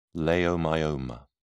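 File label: "leiomyoma", British English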